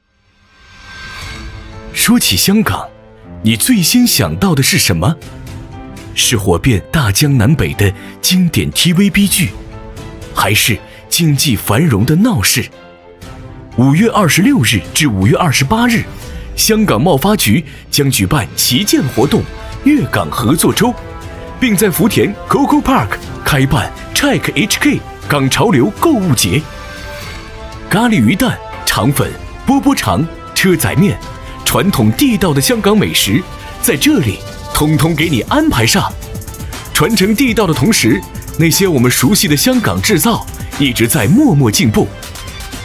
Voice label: 男 宣传片 香港文旅

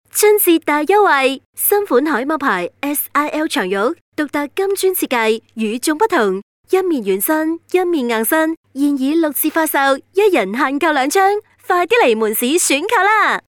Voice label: 粤女 粤语普通广告 床褥; SIL

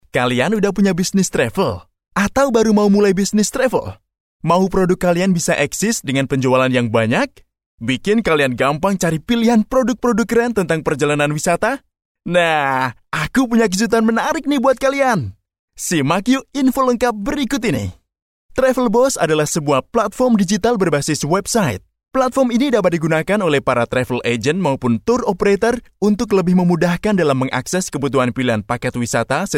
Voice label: 印尼语男 旅行机构宣传 轻快自然

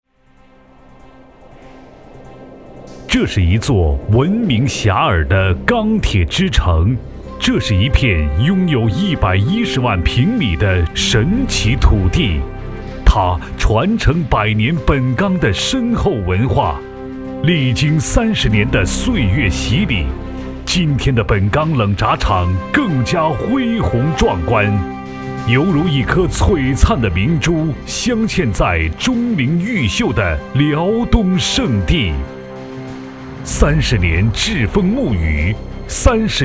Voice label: 男 企业宣传 冷轧厂; 本钢

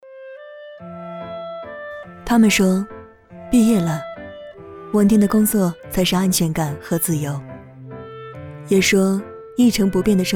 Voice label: 女 人物旁白类 花艺师

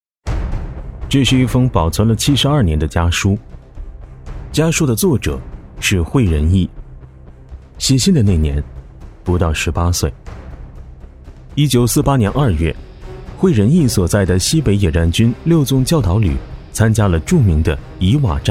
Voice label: 男-纪录片; 一封家书

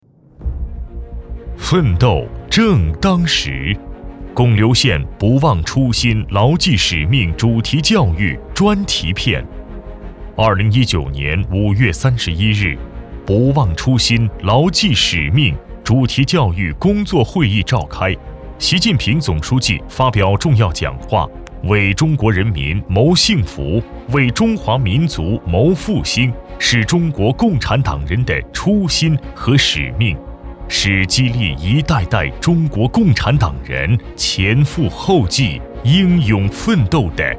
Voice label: 男 政府汇报; 不忘初心

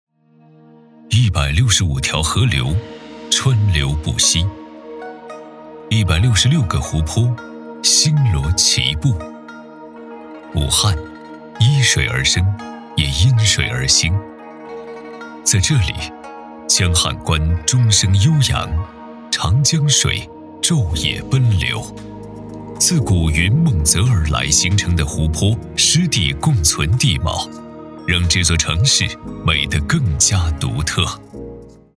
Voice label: 男 汇报类; 卫星视角看武汉系列之第一集

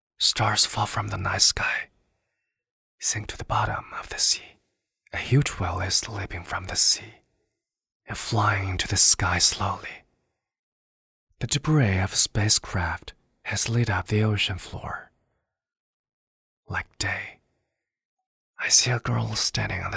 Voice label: 男 英文 轻声细语

